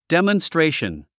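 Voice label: "demonstration", English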